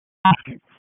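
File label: Fire Department Alert, p25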